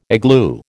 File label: "igloo", American